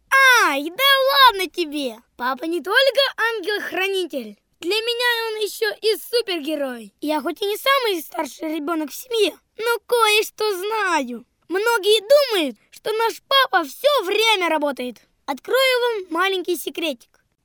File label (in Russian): malchik 9 let Ru